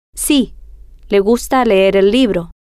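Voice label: si Le Gusta Leer Libro, Spanish, female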